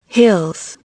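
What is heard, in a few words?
"hills", English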